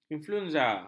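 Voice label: "influenza", Malay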